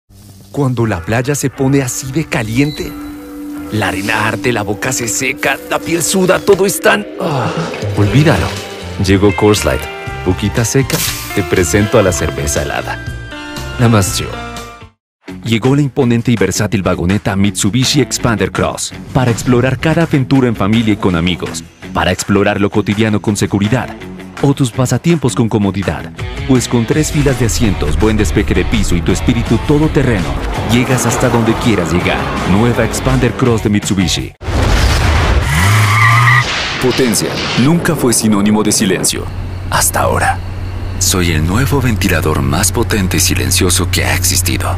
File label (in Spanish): Comercial